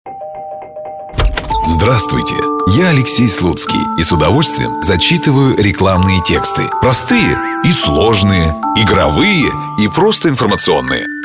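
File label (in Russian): голоса, Russian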